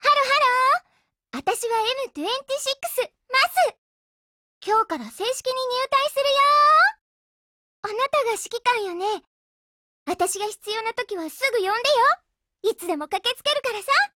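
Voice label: gain, Japanese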